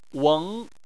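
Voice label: "weng", Mandarin Chinese, second tone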